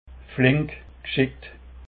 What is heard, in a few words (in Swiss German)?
"flinkgescheckt", alsace